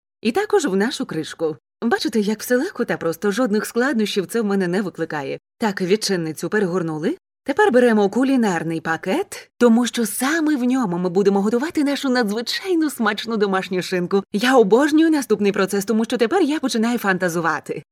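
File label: Ukrainian female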